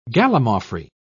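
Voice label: "gallimaufry", British English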